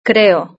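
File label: "creo" Spanish; verb-conjugator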